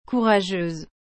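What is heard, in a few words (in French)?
"courageuse", French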